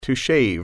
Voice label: "shave", English

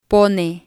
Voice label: "pone", spanish

